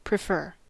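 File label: "prefer", US English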